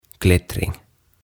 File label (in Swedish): "Klättring" "Kiipeily"